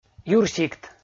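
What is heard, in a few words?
"юрсикт", Komi, male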